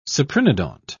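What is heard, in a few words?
"cyprinodont", British English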